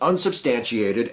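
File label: "unsubstantiated", American English; pronunciations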